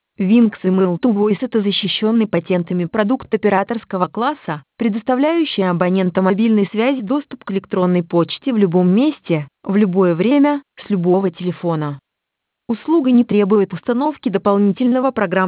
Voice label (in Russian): Email2Voice RU